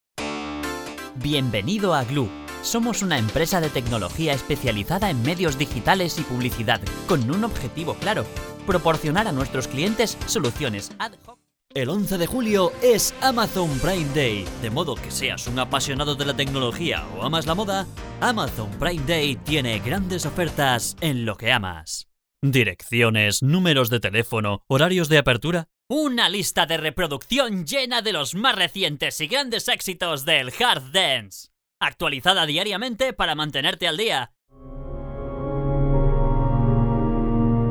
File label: spanish male voices